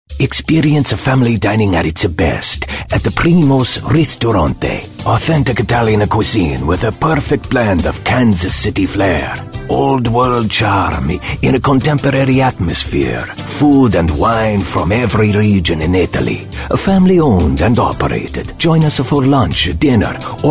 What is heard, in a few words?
ITALIAN accent voiceover sultry